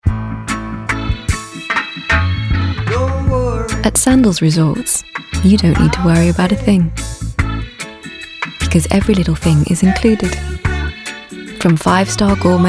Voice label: RP Reel; Sandals

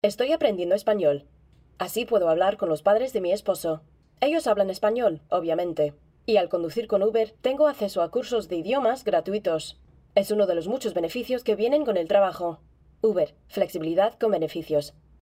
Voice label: RADIO; UBER; LANGUAGE COURSES

